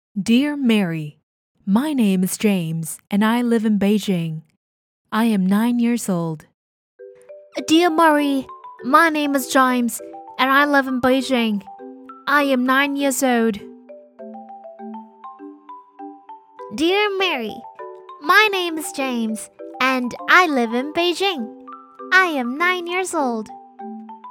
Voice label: 英语; 我岁了英语试音

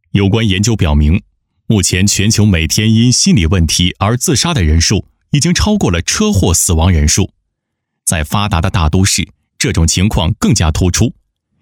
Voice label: 专题心理健康大气警示

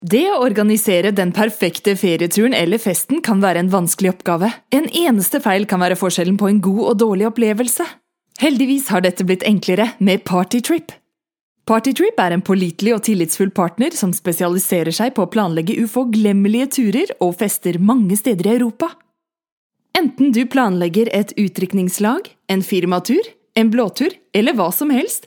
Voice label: 挪威语女

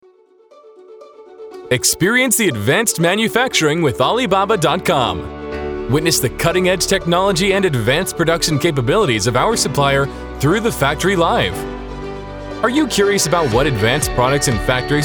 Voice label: 外籍; 阿里巴巴

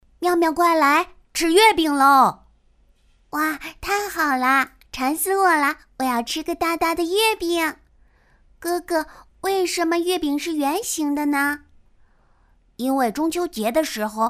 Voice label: 角色 男童女童

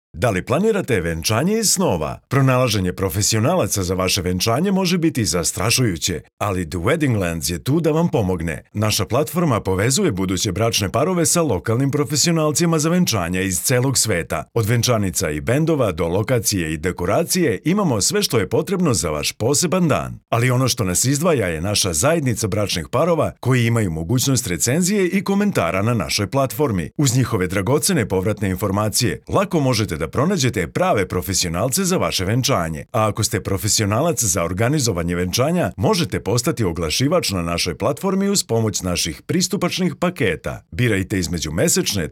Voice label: 塞尔威亚语男成熟磁性

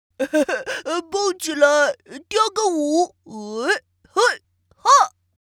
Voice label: 男童憨憨胖胖男童角色

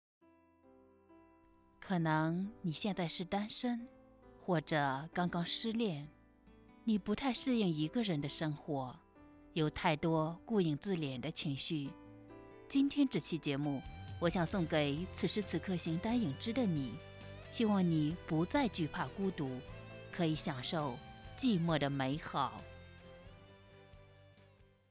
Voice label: 情感类

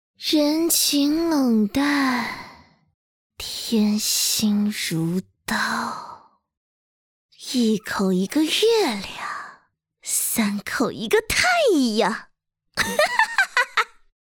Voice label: 少女病娇邪气

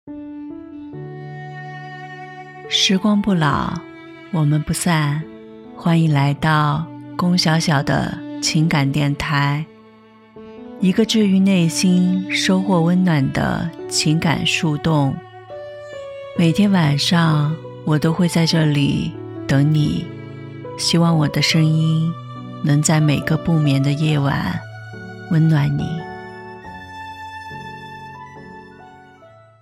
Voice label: 电台片头

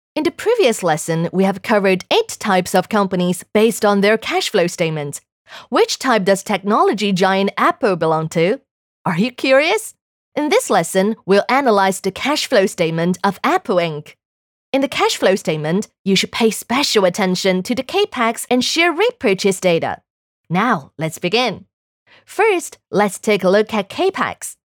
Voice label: 英对话互动感样音女王

